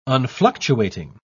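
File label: "unfluctuating", English, uk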